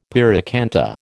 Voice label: "pyracantha", American